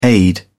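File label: "aide", British English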